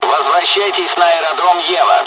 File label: fly, dialogs russian; War Thunder